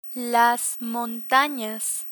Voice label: "montanas", Spanish; learn spanish